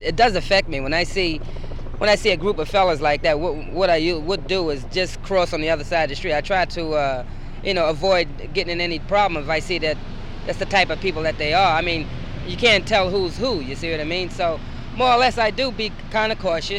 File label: New York interviews